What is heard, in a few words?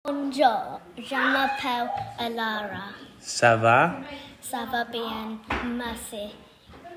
Conversation